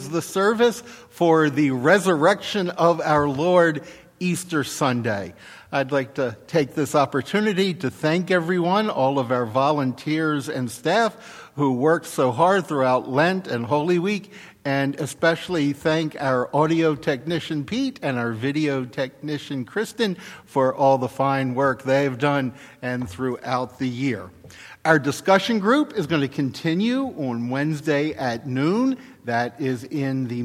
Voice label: Welcome and Announcements; Easter Sunday